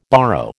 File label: "borrow", American